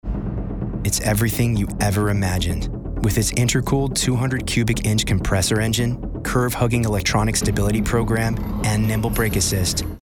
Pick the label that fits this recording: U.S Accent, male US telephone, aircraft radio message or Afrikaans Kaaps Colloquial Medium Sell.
U.S Accent